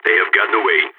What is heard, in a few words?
lost enemy, balkan; vo